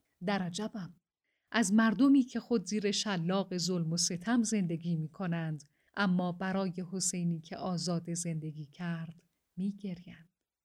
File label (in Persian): تعجب, لحن تعجبی; متن برای تمرین لحن تعجبی; در عجبم از مردمی که خود زیر شلاق ظلم و ستم زندگی می‌کنند اما برای حسینی که آزاده زندگی کرد٬می‌گریند.